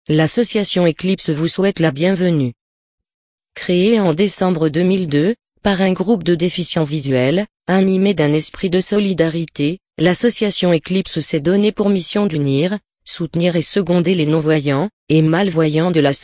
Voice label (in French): Exemple d'un texte lu par une synthese vocale